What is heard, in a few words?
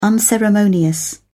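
"unceremonious", British English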